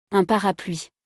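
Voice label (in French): "Un parapluie", French; vocabulaire; le lexique de l'habillement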